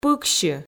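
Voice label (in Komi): "пыксьы", Komi, female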